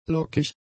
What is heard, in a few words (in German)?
"lockig", German; adjectives